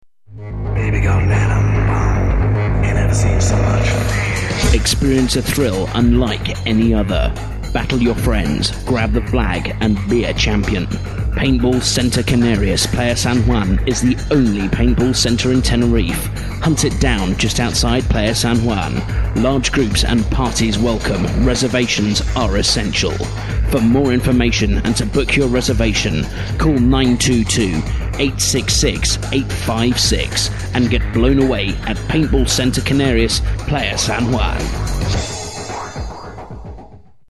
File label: ads; paintball canarias